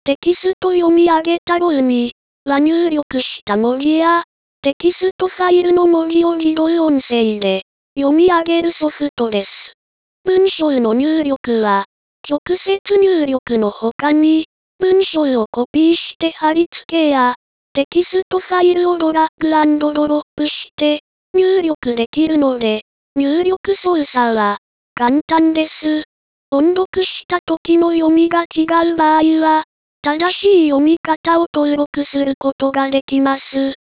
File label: lady